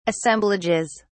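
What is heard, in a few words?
"assemblages", British English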